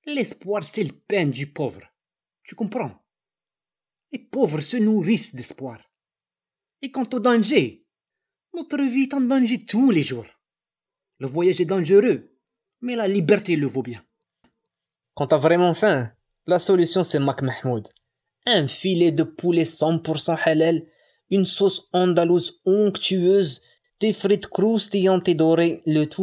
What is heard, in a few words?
male french with moroccan a; moroccan accent; Devine Voices